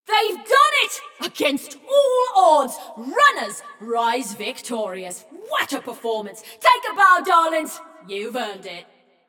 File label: Runners Win, Announcer; Flux; Scraprun